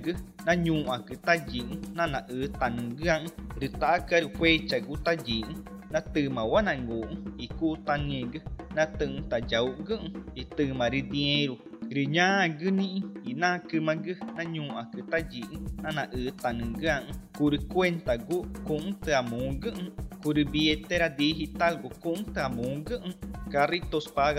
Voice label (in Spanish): ticuna bono 600, spot de radio; precauciones al salir de mi comunidad